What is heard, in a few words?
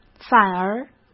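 "反而", Mandarin Chinese; chinese flashcards; hsk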